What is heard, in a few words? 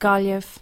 "Gaillimh", irish; irish places